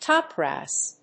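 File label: "top brass", English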